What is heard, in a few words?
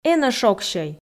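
"энӧ шогсьӧй", Komi, female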